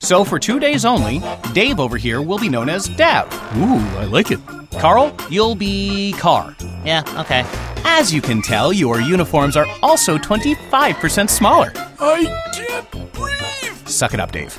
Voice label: snarky game show host

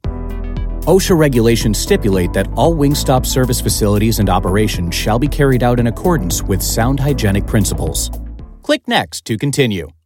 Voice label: firm clear osha regulation guidelines; wingstop

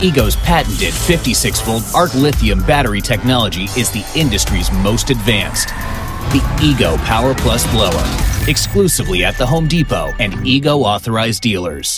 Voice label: confident gritty neighbor; egohome depot

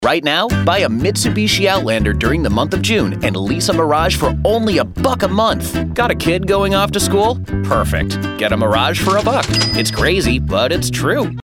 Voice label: breezy; mirage

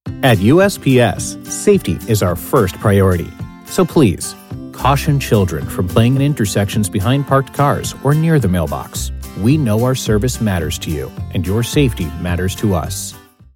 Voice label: millennial guy next-door; usps

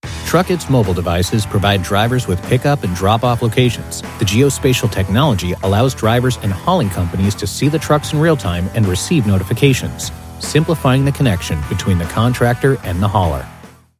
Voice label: laid back casual; truckIt

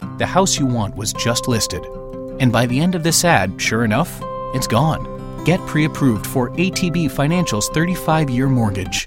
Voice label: concerned close friend; atb financial

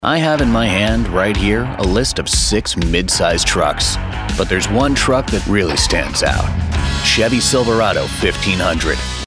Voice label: gritty hard workin mans man; the silverado difference

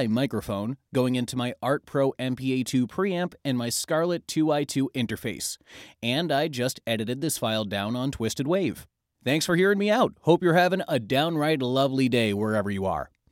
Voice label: Studio Sample

